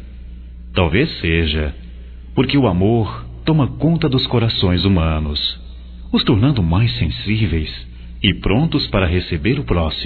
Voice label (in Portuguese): Natal geral, masc; Telemensagens 2017; Natal & Ano Novo